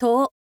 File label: info lady too